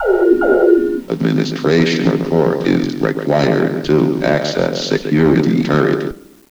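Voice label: Crate Storage announcement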